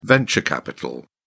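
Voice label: "venture capital", British English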